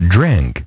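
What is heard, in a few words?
"drink", English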